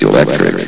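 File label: "electric", male synthesized voice; vox; source engine; hl1; video games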